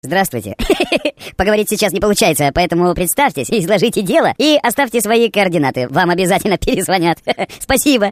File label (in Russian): dlja avtootvetchika buratinskij golos; avto otvechik